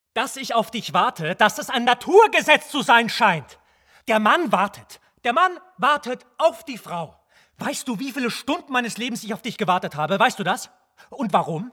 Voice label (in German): Monolog; Der wartende Mann